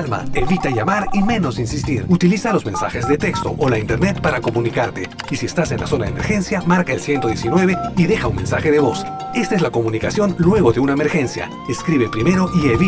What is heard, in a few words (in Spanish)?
SPOT RADIO DESASTRES NATURALES